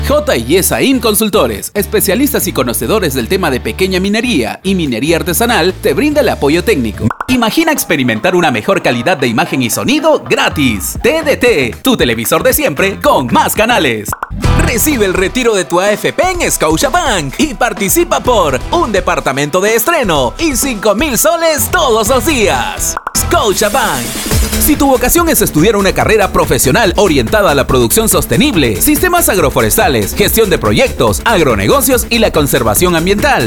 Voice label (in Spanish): neutro, espanol neutro